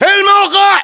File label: in position, GE